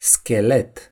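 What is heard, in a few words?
"skeleton", Romanian; gcompris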